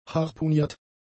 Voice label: "harpuniert", German; adjectives

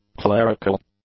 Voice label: "clerical", English; adjectives

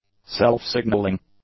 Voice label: "self-signalling", English; adjectives

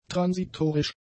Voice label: "transitorisch", German; adjectives

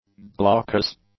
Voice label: "glaucous", english; adjectives

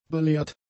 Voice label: "belehrt", German; adjectives

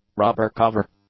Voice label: "rubber cover", English; nouns

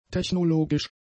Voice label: "technologisch", German; adjectives

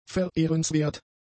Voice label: "verehrenswert", German; adjectives

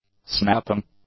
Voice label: "snapping", english; nouns

